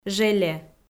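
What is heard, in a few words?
"желе", Komi, female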